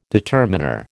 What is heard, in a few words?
"determiner", American